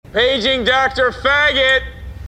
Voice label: paging doctor f